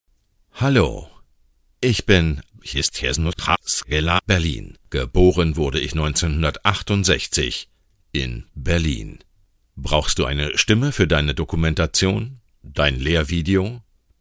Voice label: de male